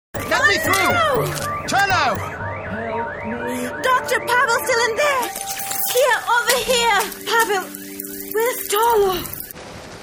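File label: Various English Accented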